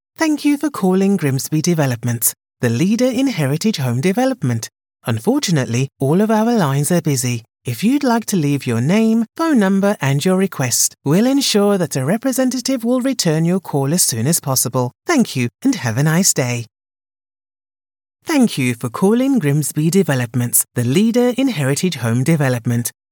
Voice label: IVR Telephony